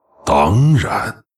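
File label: yes, Chinese; Varimathras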